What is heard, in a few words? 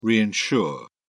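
"reinsure", British English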